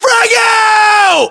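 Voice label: British inform attack grenade, battlechatter, english; localized english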